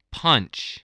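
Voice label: "punch", English word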